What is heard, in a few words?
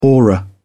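"aura", British English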